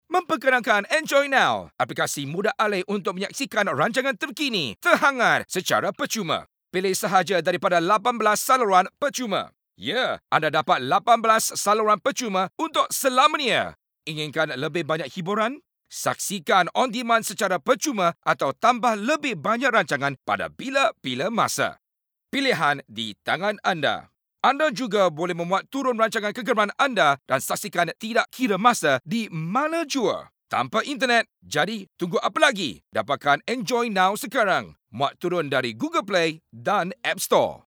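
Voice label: announcer voice over BM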